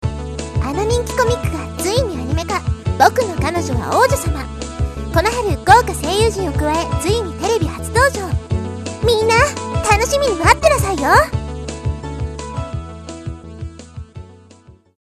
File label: anime